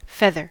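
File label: "feather", US English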